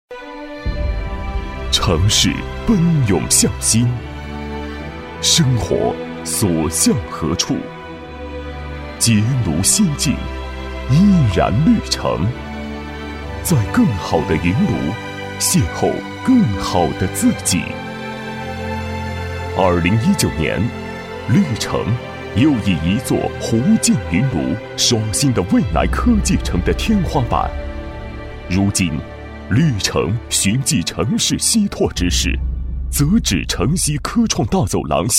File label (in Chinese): 男-房地产旁白-走心质感, 大气主流 大气浑厚; 绿城檀影云庐